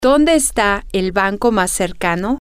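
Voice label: where Is The Closest Bank, Spanish, female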